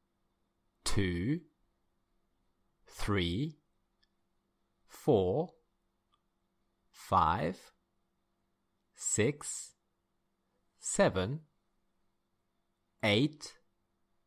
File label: English numbers; اعداد انگلیسی